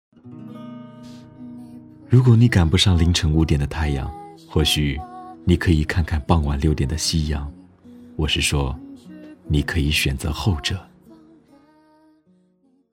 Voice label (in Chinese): 情感